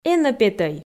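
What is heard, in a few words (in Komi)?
"энӧ петӧй", Komi, female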